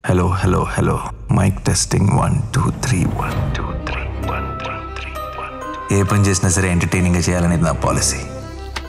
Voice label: "Helo Helo Mic Testing 123" Dialogue; Nani